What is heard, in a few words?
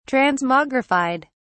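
"transmogrified", British English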